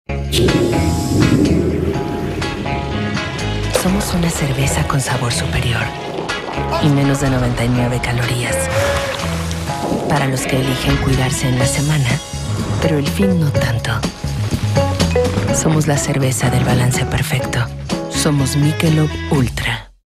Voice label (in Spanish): TONO SENSUAL CONTEMPORANEO LOCUTORA